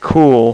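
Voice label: "cool", male, voice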